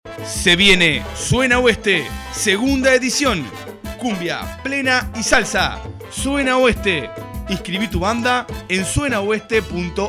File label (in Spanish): spot; suena oeste 2da edicion